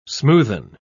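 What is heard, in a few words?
"smoothen", British English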